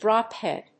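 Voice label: "drop-head", English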